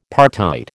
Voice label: "partite", American